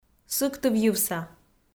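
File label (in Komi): "сыктывйывса", Komi, female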